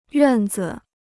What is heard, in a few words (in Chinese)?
"院子", Chinese